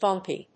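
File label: "funky", English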